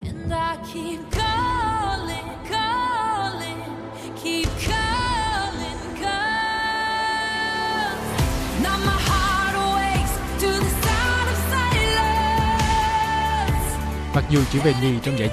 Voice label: vietnamese; Ukraine đăng quang Eurovision 2016, Úc về nhì; SBS Vietnamese

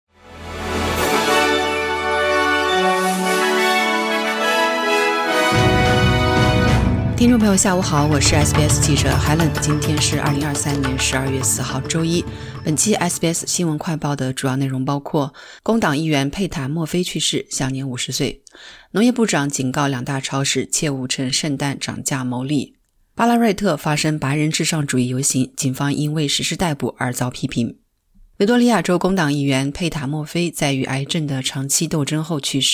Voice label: mandarin newsflash; 警方调查关于Ballarat白人至上主义者游行中行纳粹礼的报告; SBS Chinese; SBS新闻快报

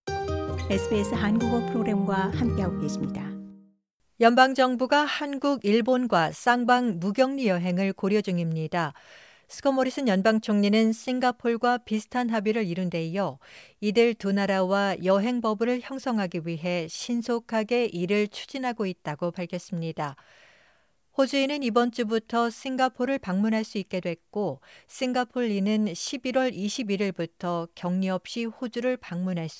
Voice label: korean; 호주-한국, 쌍방향 자유여행구역 설정 검토 착수; SBS Korean; 연방 정부가 한국, 일본과 쌍방 무격리 여행을 고려 중이다.

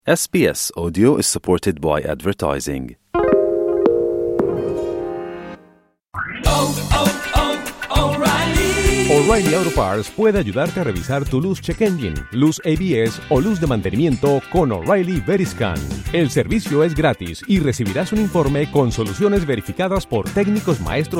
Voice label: cantonese; 【爆汁大滿足】自製日式南蠻炸雞漢堡; 好煮意; SBS Chinese

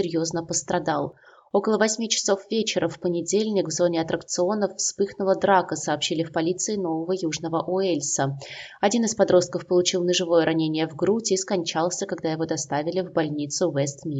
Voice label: russian; SBS Russian; Teenage boy dead, another in hospital after stabbing at Sydney Royal Easter Show

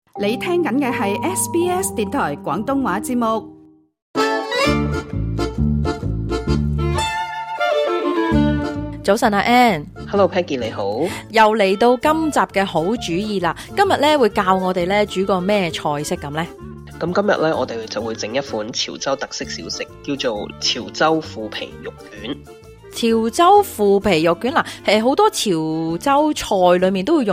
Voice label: cantonese; 【傳統小吃家常風味】潮州腐皮豬肉卷; 好煮意; SBS Chinese

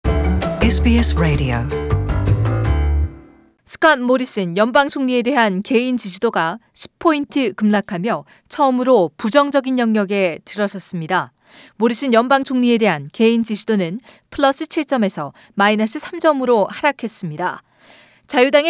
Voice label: korean; SBS Korean; 스콧 모리슨 연방 총리 지지율 하락...부정평가, 긍정 평가 앞서

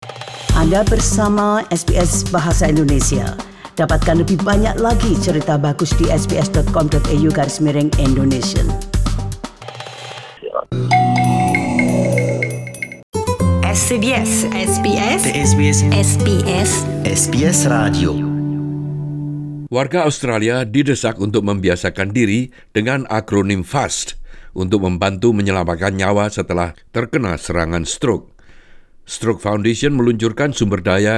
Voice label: indonesian; SBS Indonesian; The longer you leave someone without medical care for a stroke, the higher the risk of permanent brain damage. Think FAST

